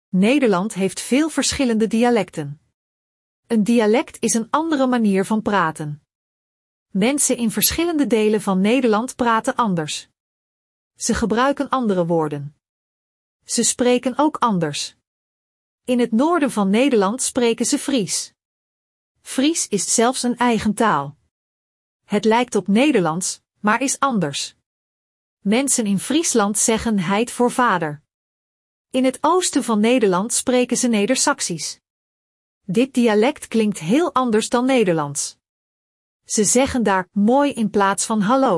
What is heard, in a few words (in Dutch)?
Dialecten; Nederlandse Taal